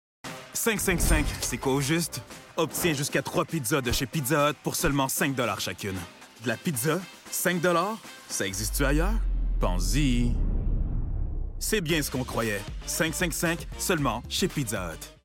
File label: anglesh; pizzahut